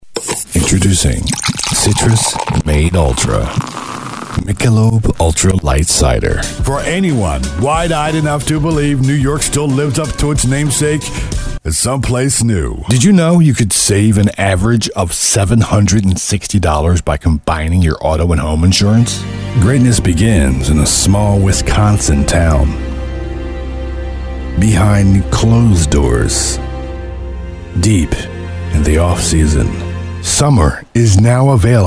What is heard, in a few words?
外籍男 Commercial